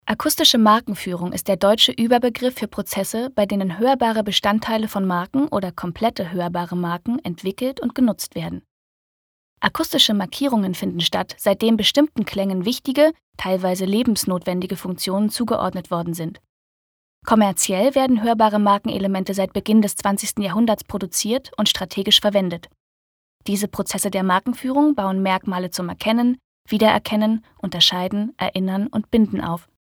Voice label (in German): Akustische Markenfuehrung Neutral